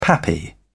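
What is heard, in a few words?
"pappy", British English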